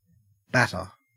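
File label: "batter", Australian English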